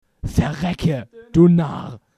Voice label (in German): Narr